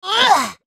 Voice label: spellcast effort; Fizz

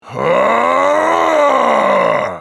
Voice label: spellcast effort, en US; Trundle

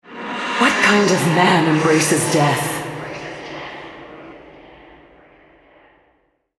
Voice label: altar capture, female, en US; Karthus

